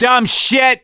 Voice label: reaction negative, voice; gambler

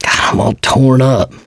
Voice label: going to die, survivor voice mechanic

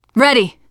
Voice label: answer ready, teen girl

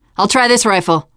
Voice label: take assault rifle, teen girl; player; survivor; voice

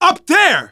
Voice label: context modifier loud, survivor voice manager; Left 4 Dead 2; left4dead2 dlc3

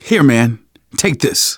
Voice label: alert give item, manager, survivor, voice; Left 4 Dead 2

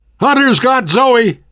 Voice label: hunter pounced, survivor voice namvet

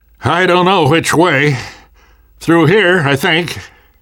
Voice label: world hospital, namvet, survivor voice

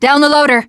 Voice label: generic, teengirl, voice

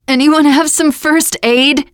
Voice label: going to die ask for heal, teen girl; player; survivor; voice

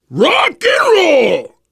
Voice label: reaction positive, voice, biker

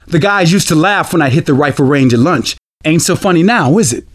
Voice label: rifle range, survivor voice; Left 4 Dead 2; left4dead2 dlc3

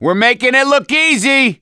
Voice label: hurrah, survivor voice; gambler

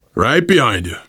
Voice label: im with you, voice, biker; Left 4 Dead 2; left4dead2 dlc3; survivor

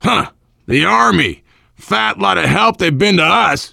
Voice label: army truck, voice, biker; Left 4 Dead 2; survivor

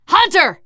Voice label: warn hunter, teen girl; player; survivor; voice

